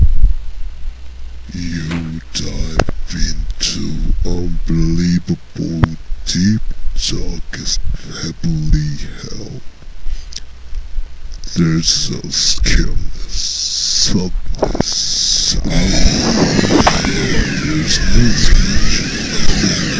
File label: room voices